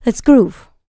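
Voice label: licks, female spoken; ILIO; Vocal Planet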